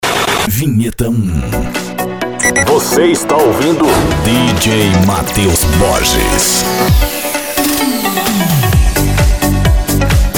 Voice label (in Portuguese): vinhetas dj - voz